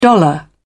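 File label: "dollar", British English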